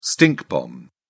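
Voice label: "stink bomb", English, British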